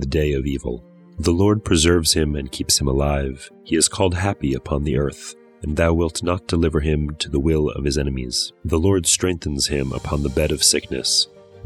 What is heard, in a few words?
poetry and prayer psalm english